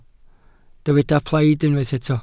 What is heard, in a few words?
"plaid", Welsh